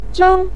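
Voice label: "zheng" neutral tone, Mandarin Chinese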